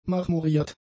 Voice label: "marmoriert", German; adjectives